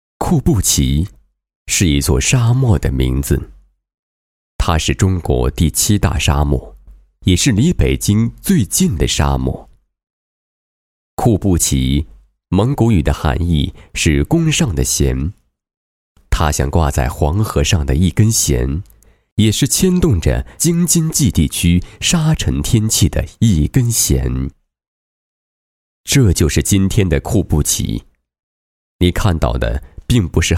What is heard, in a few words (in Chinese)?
男 纪录片; 生命之弦